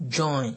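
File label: "join", Nigerian Pidgin, male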